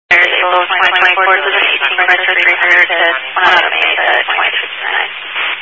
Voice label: Highway Patrol, scanner; DPS; Dist 04 Yuma